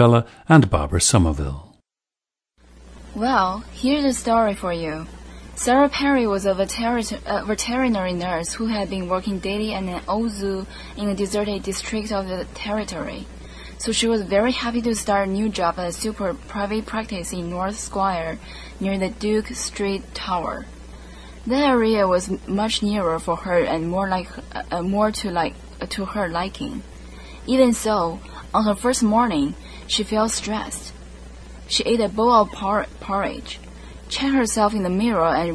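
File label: shanxi, International Dialects of English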